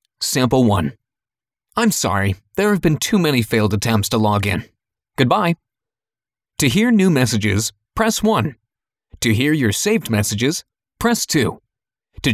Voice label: Phone IVR